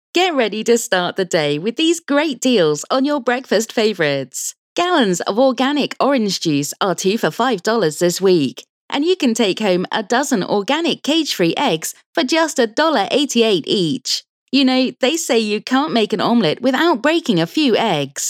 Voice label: Casual Conversational